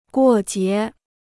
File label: "过节", Chinese